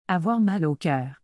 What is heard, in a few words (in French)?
Avoir mal au cœur., Québécois French; To feel uneasy